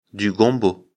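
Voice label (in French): "gombo", French; afrikalan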